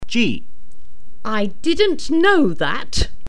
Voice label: emotion